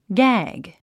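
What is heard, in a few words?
"gag", English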